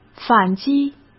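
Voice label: "反击", Mandarin Chinese; chinese-flashcards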